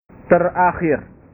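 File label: "terakhir", Indonesian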